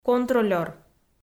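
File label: "контролёр" Komi, female; audio dict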